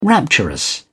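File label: "rapturous", British English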